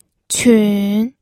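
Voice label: "qun", Mandarin Chinese, third tone; pinyin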